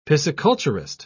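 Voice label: "pisciculturist", British English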